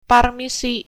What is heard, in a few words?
excuse me, sundanese